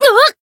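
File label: Voice damaged, Japanese; hiina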